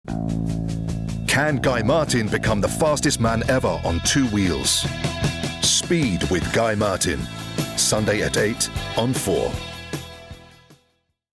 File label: promo